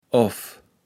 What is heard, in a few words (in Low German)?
"off", kaevels platt